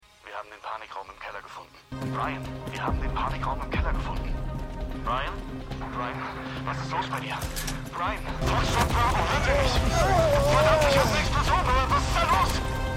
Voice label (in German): Funk, Stimmproben; Liaison